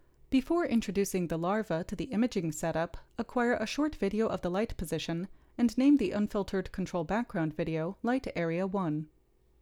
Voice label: Gong Voiceover